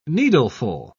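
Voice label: "needleful", British English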